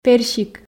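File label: "персик", Komi, female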